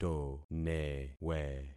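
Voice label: "tonewe", concatenated syllables; Affixing experiment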